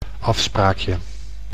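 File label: "afspraakje", Dutch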